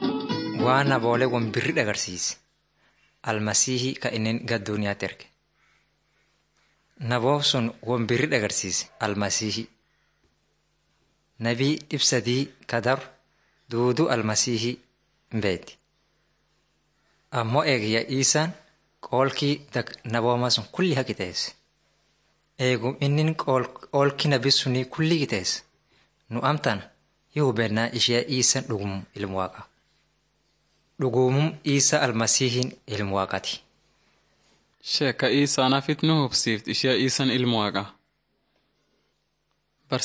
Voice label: teaching tape orma; bible lessons 53 56